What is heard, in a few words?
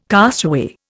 "castaway", Indian